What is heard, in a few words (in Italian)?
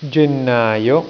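"gennaio", Italian; tijden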